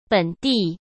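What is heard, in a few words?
"本地", Mandarin Chinese; hsk; chinese flashcards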